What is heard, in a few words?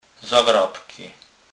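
"zagrabky", Kurpie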